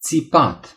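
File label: "scream", Romanian; gcompris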